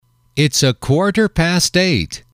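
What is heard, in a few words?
"it's a quarter past eight", English, male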